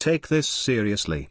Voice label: Male Gnome; Story Hero Scabbs; BOM Scabbs Mission9 Exchange